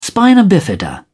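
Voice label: "spina bifida", British English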